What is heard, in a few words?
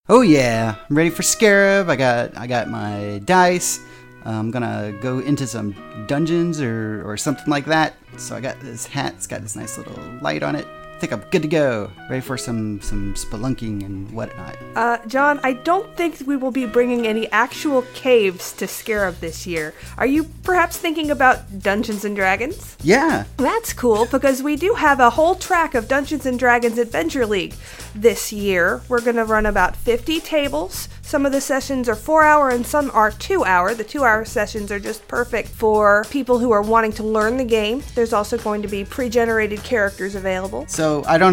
Ad; SCARAB DDAL